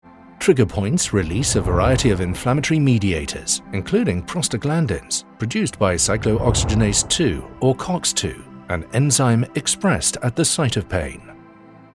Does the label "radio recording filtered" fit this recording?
No